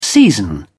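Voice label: "season", British English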